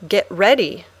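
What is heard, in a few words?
"get ready", English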